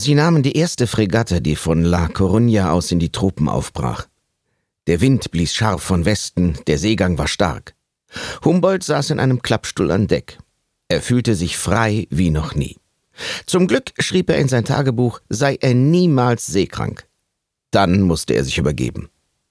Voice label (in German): Hoerbuch